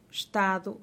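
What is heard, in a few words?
"xtadu", Teotitlán del Valle Zapotec; your father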